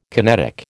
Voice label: "kinetic", American

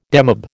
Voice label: "demob", American

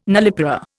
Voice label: "nullipara", Indian

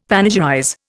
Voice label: "panegyrize", Indian; Dictionary

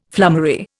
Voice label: "flummery", Indian

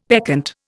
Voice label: "peccant", Indian; Dictionary

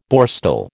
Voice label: "Borstal", American

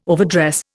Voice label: "overdress", Indian

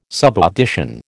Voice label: "subaudition", American

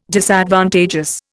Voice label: "Disadvantageous", Indian

